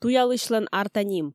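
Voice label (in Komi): туялысьлӧн арта ним, Komi, female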